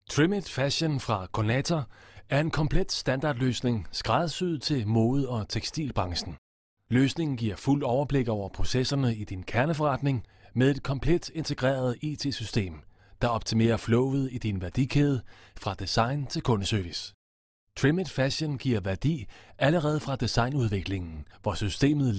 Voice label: den male lektoring